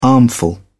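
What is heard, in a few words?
"armful", British English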